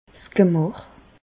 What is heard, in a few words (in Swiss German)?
"'s Gemäch", Bas Rhin; medecine; organes génitaux